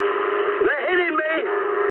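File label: aircraft damaged mood high, speech English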